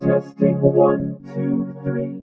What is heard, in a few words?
vocoded sound; SignalLab2